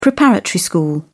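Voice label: "preparatory school", British English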